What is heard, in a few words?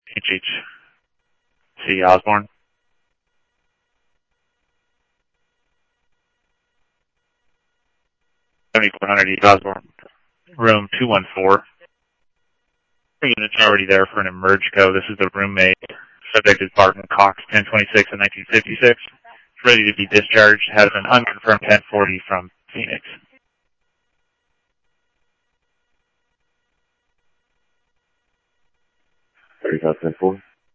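police radio dispatch, ScottsdalePolice; SCTSDLE PD - PD1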